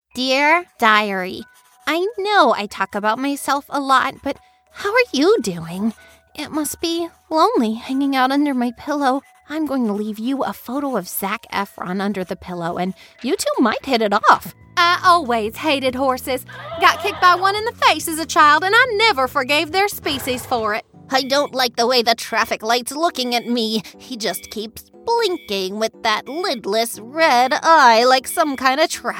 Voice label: Characters, characters female